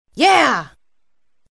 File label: female "yeah"; Survivor The Interactive Game; Player